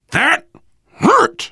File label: friendly fire, voice, biker; Left 4 Dead 2; left4dead2 dlc3; survivor